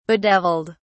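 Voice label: "bedeviled", English, British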